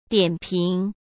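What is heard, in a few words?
"点评", Mandarin Chinese; chinese flashcards; hsk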